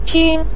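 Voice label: "pin" neutral tone, Mandarin Chinese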